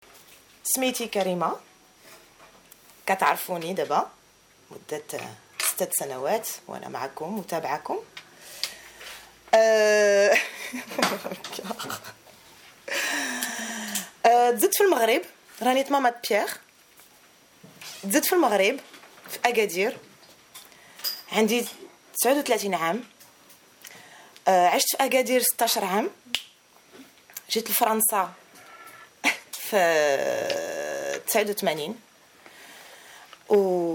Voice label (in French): presentation en arabe